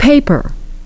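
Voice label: "paper", English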